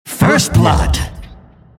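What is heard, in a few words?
announcer kill first blood; killing spree; dota; announcer dlc monkey king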